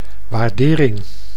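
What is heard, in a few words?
"waarderering", Dutch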